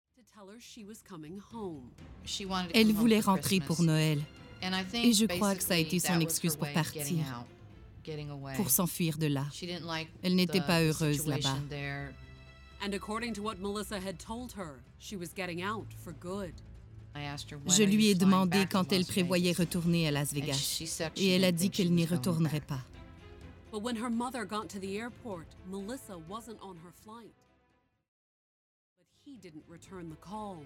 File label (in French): surimpression fr normatif affirmee grave adulte adulte mature; snapped